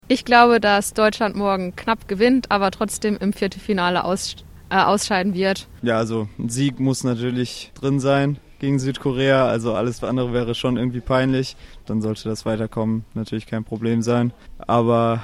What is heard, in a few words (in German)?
Umfrage Südkoreaspiel